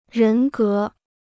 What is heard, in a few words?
"人格", Chinese